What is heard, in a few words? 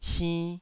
"sinn2", Taiwanese